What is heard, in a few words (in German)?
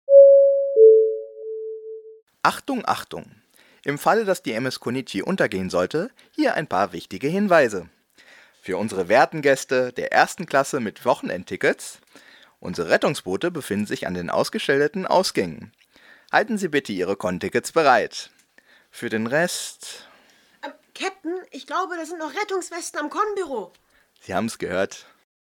durchsage